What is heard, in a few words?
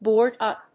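"board up", English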